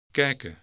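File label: "kijken", Dutch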